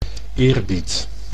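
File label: "eerbied", Dutch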